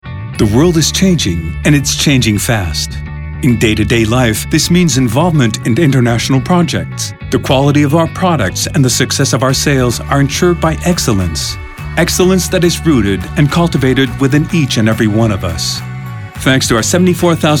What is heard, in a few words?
Valeo voice over US; VOIX OFF BILINGUE